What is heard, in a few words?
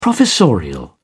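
"professorial", English, British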